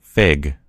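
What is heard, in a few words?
"fig", American English; gcompris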